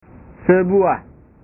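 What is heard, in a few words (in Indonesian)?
"sebuah", Indonesian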